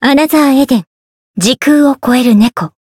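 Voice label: Voice Japanese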